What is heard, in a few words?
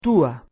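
"tuh2", Proto-Indo-European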